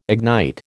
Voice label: "ignite", American

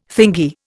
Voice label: "thingy", Indian

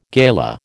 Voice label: "gala", American

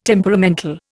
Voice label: "temperamental", Indian

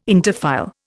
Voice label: "interfile", Indian

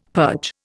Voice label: "purge", Indian; Dictionary

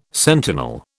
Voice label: "sentinel", British

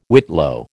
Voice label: "whitlow", American

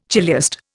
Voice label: "chiliast", Indian

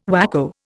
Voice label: "whacko", Indian

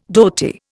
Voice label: "dhoti", Indian

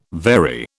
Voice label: "very", British

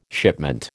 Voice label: "shipment", American

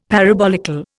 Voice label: "parabolical", Indian; Dictionary

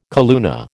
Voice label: "calluna", American

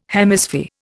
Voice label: "Hemisphere", Indian

